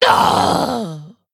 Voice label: Female Quilboar Death

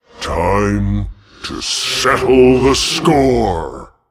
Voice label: Male Demon Hero Power; MalGanis; Uther

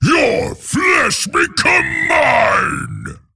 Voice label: Male Abomination

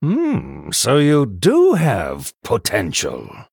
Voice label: Male Human Ahead

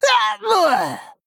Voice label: Male Goblin Death

